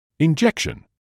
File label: "injection", English